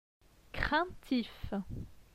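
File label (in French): "Craintif", French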